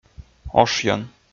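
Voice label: "ошйӧн", Komi, male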